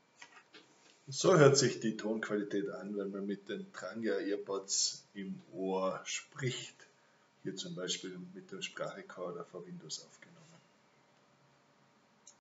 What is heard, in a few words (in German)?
earpods sprachaufzeichnung tonqualitaet test; tranya